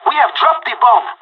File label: spotted loose bomb, pirate; Counter Strike Model Pack; CS:GO